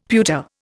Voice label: "pewter", Indian; Dictionary